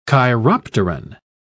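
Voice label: "chiropteran", English, British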